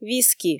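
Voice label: "виски", Komi, female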